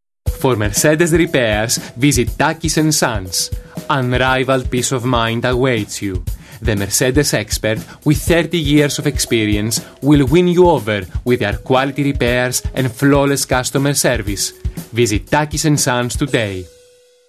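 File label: Greek English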